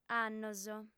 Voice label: "annos", audiofem

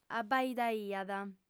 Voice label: "abaidaiat", audiofem

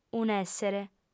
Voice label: "un'èssere", audiofem

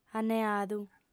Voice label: "anneadu", audiofem

